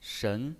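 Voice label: "shen2", Mandarin pinyin voice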